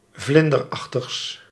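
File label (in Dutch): "vlinderachtigs", Dutch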